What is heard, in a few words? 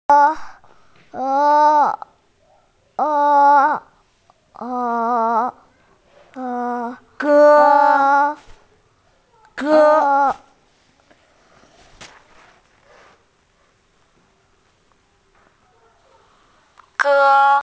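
"ge1", Mandarin Chinese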